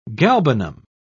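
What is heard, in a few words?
"galbanum", British English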